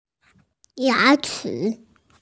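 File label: "ya2chi3", Chinese, F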